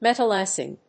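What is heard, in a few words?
"metalaxyl", English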